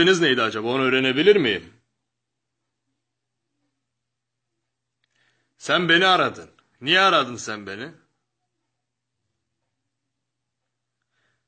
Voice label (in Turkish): tek tarafli telefon sakasi